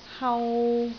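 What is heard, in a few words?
"hau3", Cantonese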